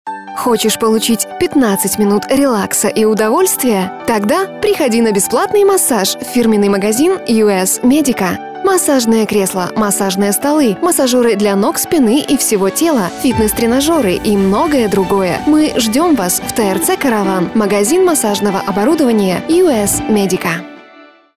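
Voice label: Russian commercial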